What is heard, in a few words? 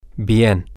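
really, spanish, male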